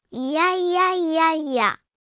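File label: anime, Japanese, Hilarious Anime Quotes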